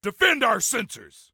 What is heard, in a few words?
Defend Sensors, Soldier Male; Soldier Male 03